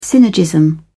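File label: "synergism", British English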